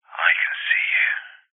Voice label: human man english says i can see you through telephone receiver creepy; zapsplat